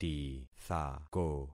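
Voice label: "tithako", concatenated syllables; Affixing experiment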